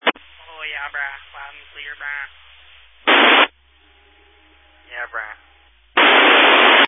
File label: Family Radio Service (FRS)